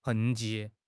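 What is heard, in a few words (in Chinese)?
"痕迹", Chinese; HSK6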